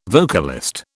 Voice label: "vocalist", British